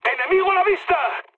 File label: enemy detected, mood high, speech; War Thunder